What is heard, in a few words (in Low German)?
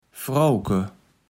"Frouwke", Platt